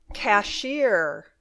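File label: "cashier", English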